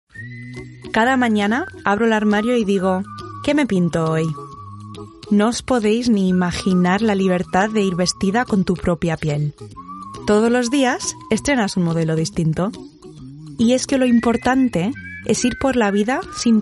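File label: Spanish, female, commercial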